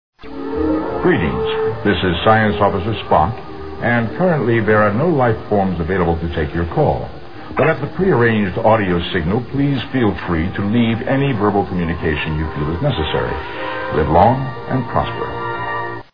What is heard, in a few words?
voice greeting